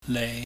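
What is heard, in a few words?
"lei", Cantonese, low rising tone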